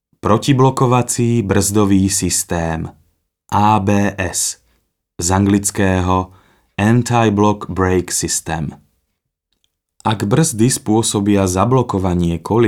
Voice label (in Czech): slovenština